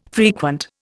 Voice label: "frequent", Indian